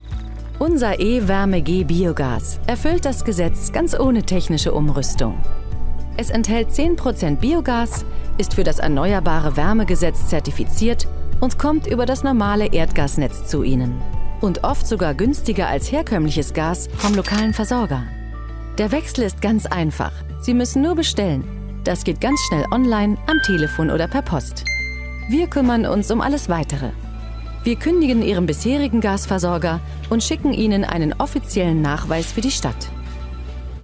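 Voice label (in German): erklärfilm; klimakoenner gmbh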